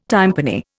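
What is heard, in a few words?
"tympani", Indian English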